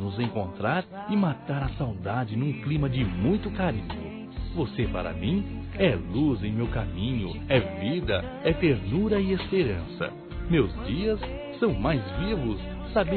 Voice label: BOA TARDE ROMANTICO-M

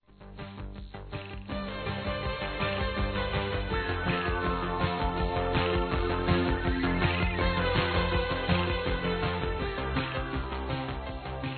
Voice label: PARCEIRO COM M, masc; Telemensagens; Telemensagens 2017

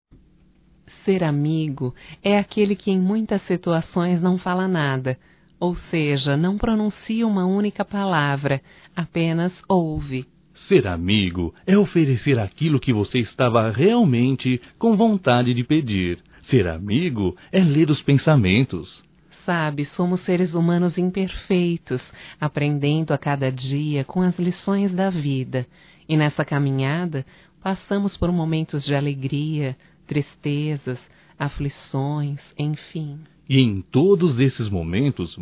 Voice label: BRINDE AMIZADE DUAS VOZES; Amizades; fem; Telemensagens 2017

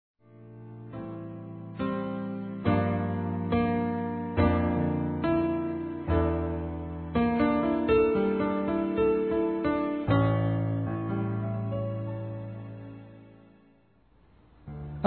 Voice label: POR NOSSAS BRIGAS, masc; Telemensagens 2017

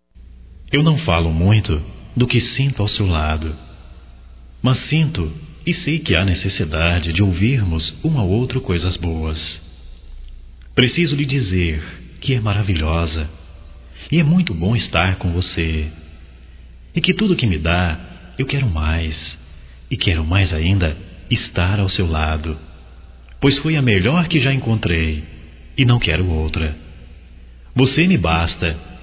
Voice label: DECLARAÇAO DISCRETA, Telemensagens, Românticas, masc

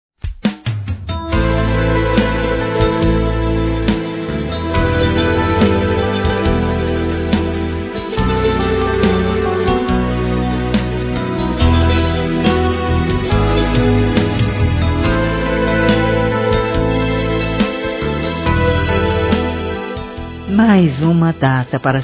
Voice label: sobrinha, Aniversário, Fem

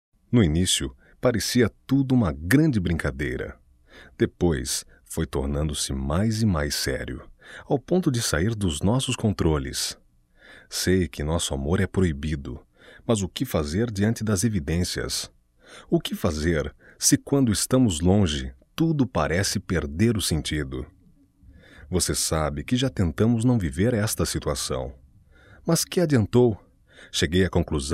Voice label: Amantes Masculino, Só Voz; Telemensagens; MOMENTOS DE AMOR

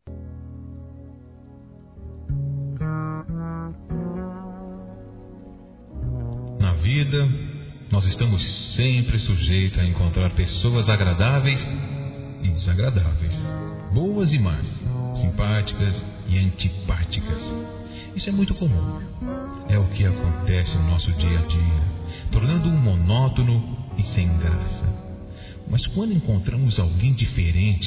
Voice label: PAQUERA M; Telemensagens; Conquistas Cantadas paqueras; masc; Telemensagens 2017

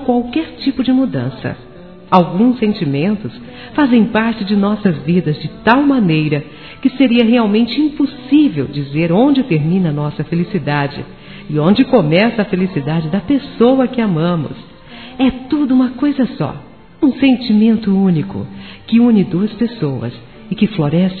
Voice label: NATAL ROMANTICO F

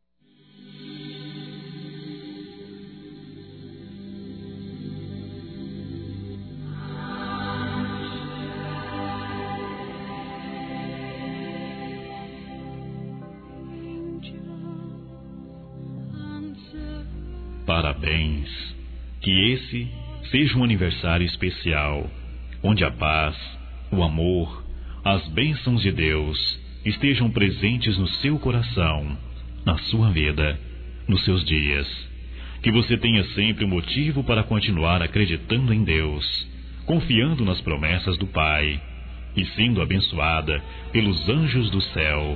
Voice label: ROMANTICO M, Telemensagens; ANIV. Evangelico

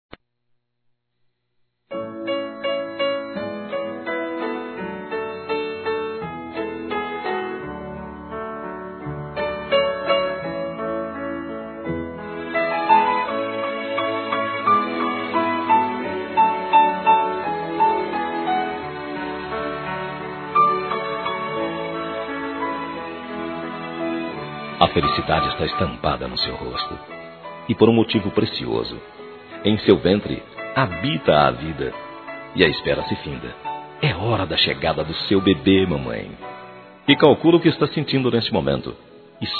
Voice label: Felicitações Para Futura Mãe Masculino; Telemensagens; Telemensagens 2017

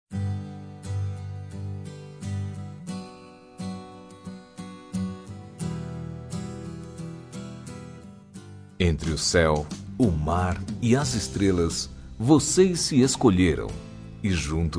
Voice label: Parabéns pelo casamento, masc.

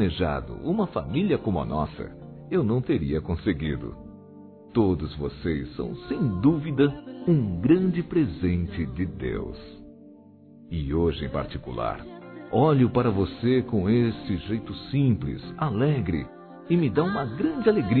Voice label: Aniversário Neto Masculino

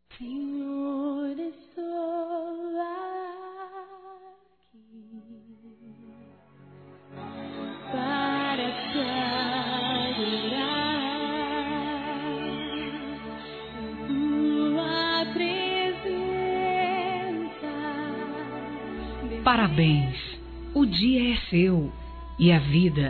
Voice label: ROMANTICO F; Aniversários; ANIV. Evangelico; Fem

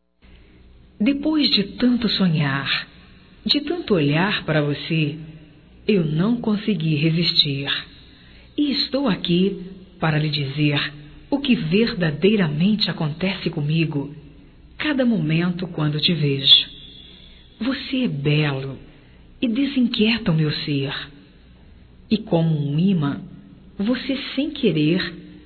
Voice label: PEDIDO DE NAMORO, Românticas fem

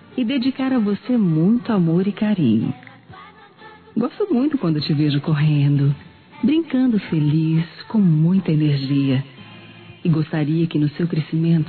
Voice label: Para Criança Geral Feminino, Aniversário Infantil; Telemensagens

